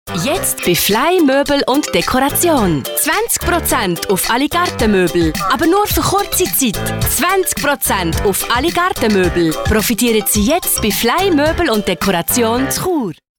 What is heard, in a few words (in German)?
Radio Spot Dialekt neutral, sprecherin; Fly Möbel